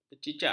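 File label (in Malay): "kecicak", Malay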